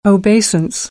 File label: "obeisance", American English; oʊ - əʊ